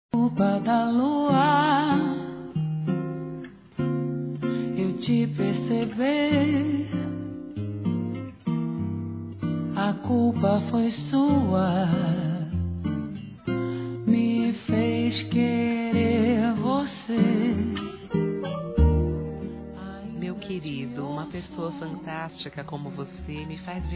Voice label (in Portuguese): ANIV. ROMANTICO F